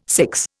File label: "six", Indian